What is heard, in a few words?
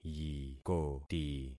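"yikoti", concatenated syllables; Affixing experiment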